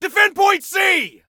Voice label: DEFEND, Soldier Male; Soldier Male 02

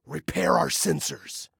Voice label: Repair Sensors, Male

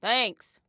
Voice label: Respond Thanks, Female

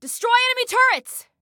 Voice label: Attack Turrets, Female

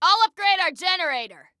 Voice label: Upgrade Self Generator, Female

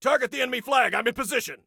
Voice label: Target Flag, Soldier Male; Soldier Male 02

